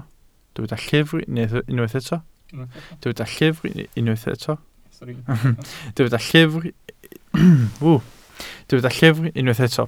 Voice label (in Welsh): "llyfr" Welsh